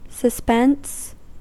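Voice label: "suspense" English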